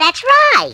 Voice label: that's right cartoon

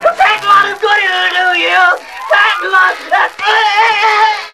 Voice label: gibbrish; Voice

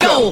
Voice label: "yo", voice

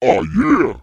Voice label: "ah yeah", Voice

